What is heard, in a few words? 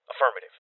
Voices Confirmation Male Young; rebel cops 2019 gamerip